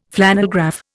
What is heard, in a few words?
"flannelgraph", Indian